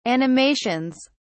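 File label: "animations", British English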